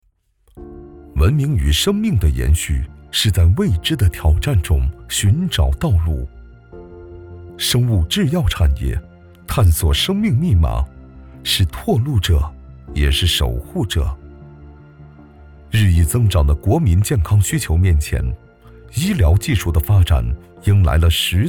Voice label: 大气宣传; 天信和

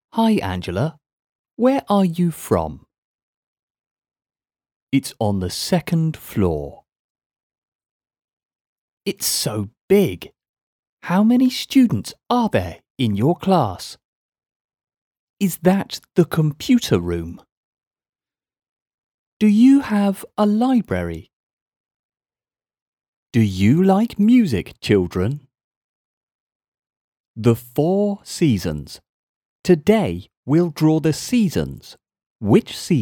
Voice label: 外籍英男英文教学对话

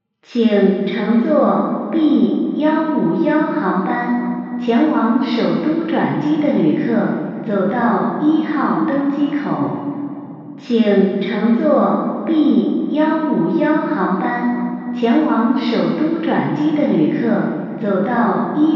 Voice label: 机场提示女声

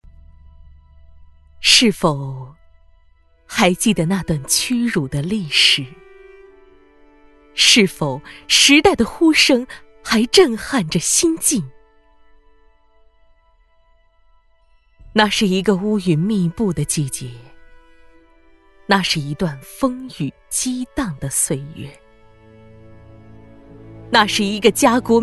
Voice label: 朗诵深情大气; 南方建设

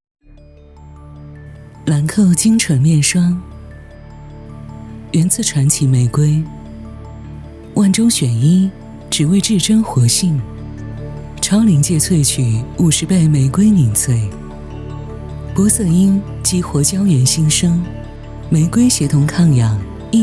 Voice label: 化妆品广告

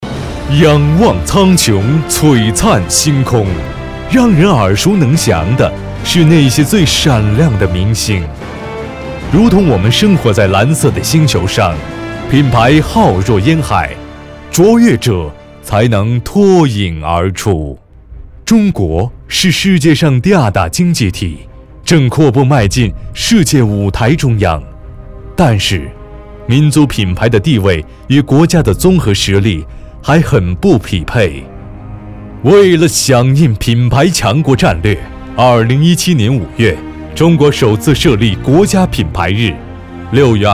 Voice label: 专题 民族品牌工程大气激昂磁性; 新华社

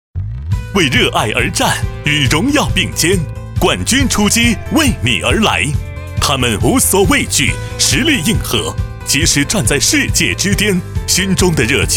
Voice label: 时尚 年轻; 浦发IG联名信用卡

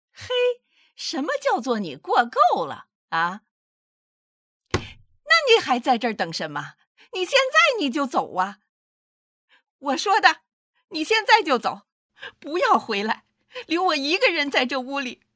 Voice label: 老年音

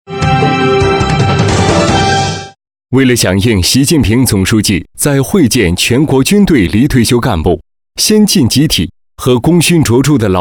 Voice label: 新闻 播报

